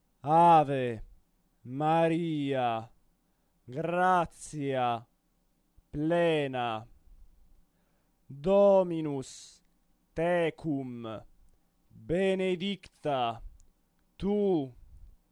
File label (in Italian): monotono; Ave maria